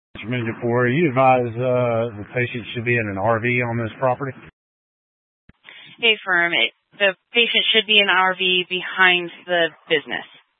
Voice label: Fire Dispatch